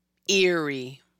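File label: "Eerie", American English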